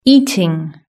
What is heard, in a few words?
"eating", British English